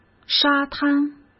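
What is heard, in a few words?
"沙滩", Mandarin Chinese; hsk; chinese-flashcards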